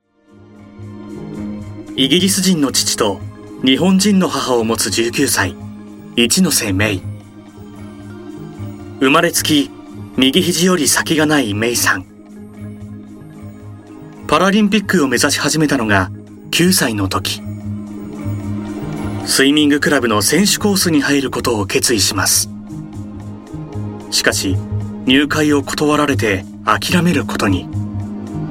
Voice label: japanese commercial

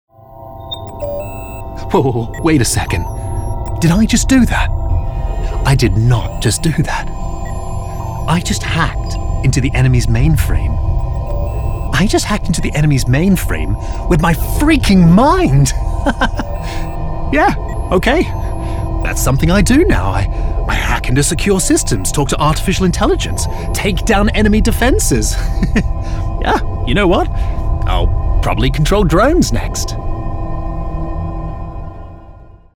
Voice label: english british video games

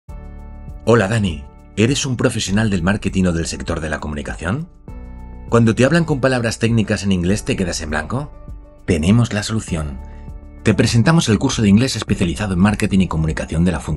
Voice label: spanish e-learning, spanish voice actors

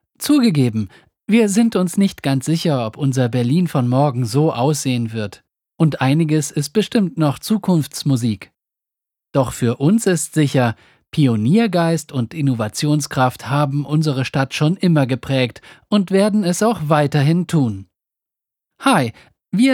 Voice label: german corporate videos

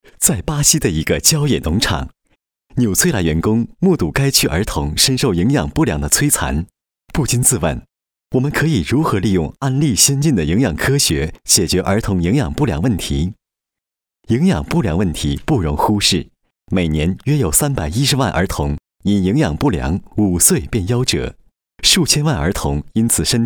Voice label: chinese mandarin audiobooks

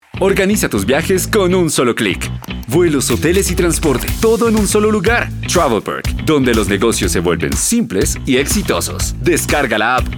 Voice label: spanish latin american commercial; colombian spanish

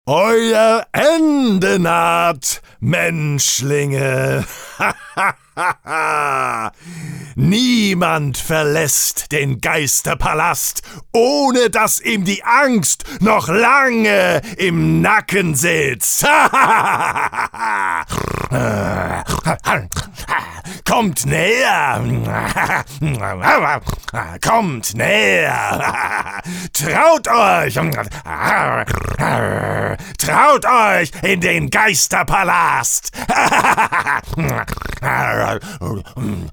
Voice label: german animation; actor de doblaje profesional

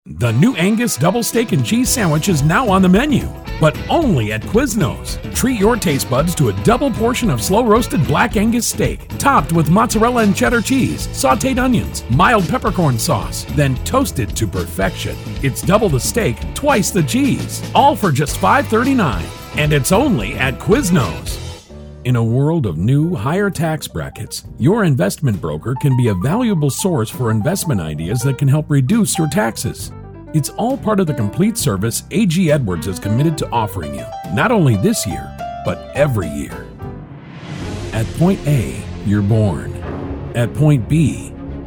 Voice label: english american commercial

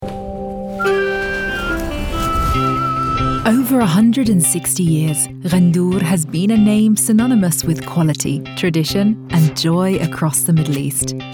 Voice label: english british narration

